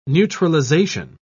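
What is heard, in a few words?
"neutralisation", British English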